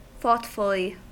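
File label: "thoughtfully", US English; pronunciations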